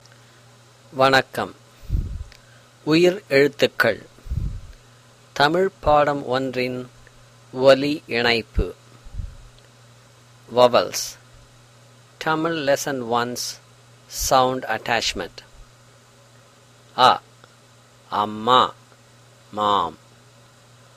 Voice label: Tamil Vowel Sounds